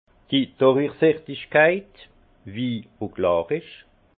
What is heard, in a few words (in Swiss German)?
"durichsichtigkeit", alsace; vin; limpidité